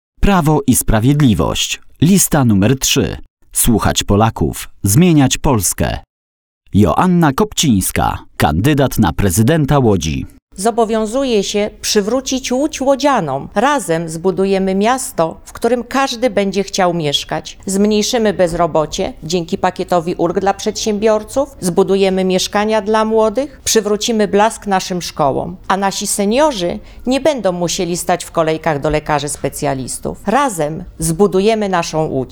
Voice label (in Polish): Spot radiowy